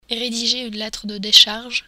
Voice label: "rediger", French